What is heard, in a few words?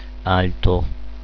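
"alto", Italian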